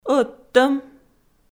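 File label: "ӧдтӧм", Komi, female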